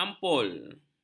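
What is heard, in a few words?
"ampul", Malay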